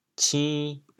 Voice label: "cin2", chaoyang

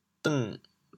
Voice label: "deng6", chaoyang

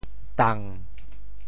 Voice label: "tang7", Teochew; 垌; dòng; 潮州

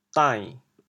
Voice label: "dain6", chaoyang

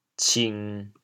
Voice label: "cing1", chaoyang

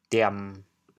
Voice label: "diam1", chaoyang

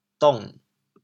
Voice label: "dong6", chaoyang

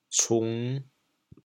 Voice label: "cung5", chaoyang; 潮阳

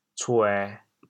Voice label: "cuê1", chaoyang

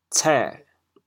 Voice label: "cê3", chaoyang

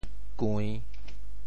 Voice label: "kui~3", Teochew; 潮州; 潮州府城POJ; kùiⁿ; 调: 帝

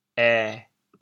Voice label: "ê7", chaoyang

